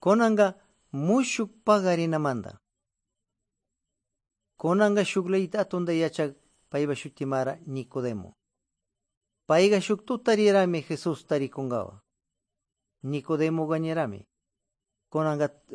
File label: good news quichua de pastaza